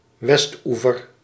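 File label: "westoever", Dutch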